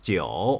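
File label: "Jiu", nine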